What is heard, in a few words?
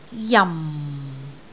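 "jam4", Cantonese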